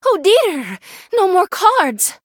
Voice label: Female Murloc No Cards; en

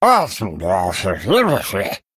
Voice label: Male Murloc Greetings, zh

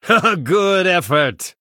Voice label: Well Played, Male Human; en

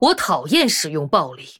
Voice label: Female Human Threaten, zh

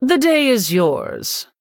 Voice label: Female Human Concede; en

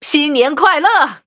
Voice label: HAPPY NEW YEAR, zh

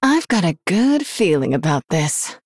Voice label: Female Human Start; en

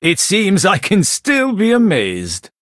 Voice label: Male Blood Elf Wow; en

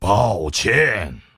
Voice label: Sorry, zh

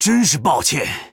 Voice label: Male Troll Sorry, zh

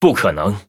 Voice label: Male Blood Elf ERROR GENERIC; zh

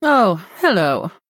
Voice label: Greetings, Female Human; en

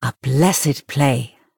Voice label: Female Night Elf Well Played

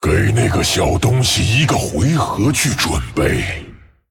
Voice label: Male Dragon, zh; 随从需准备; 给那个小东西一个回合去准备。; 风暴巨龙迦拉克隆（英雄）; Minion not ready; Give that weakling a turn to get ready.

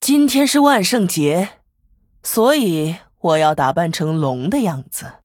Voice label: Female Human HAPPY HALLOWEEN, zh

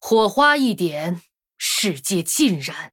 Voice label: Female Human Threaten, zh

